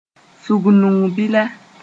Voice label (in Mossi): "sũgnug bila", Moore; coordination conjunction